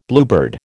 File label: "bluebird", American